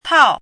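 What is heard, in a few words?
"tao4", Mandarin pinyin syllable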